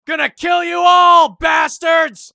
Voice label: battle cry; eft; usec